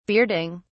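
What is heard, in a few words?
"bearding", English, British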